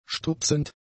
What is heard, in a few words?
"stupsend", German; adjectives